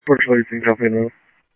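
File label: Mesa Police Department, scanner audio; Mesa Simulcast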